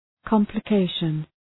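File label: "complication", English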